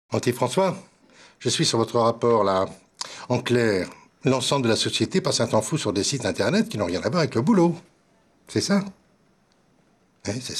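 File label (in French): Complice douce, comédienne voix off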